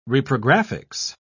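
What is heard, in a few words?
"reprographics", British English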